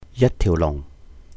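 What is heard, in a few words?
"yāt tiùh lùhng", Cantonese; one-step; a whole; 一條龍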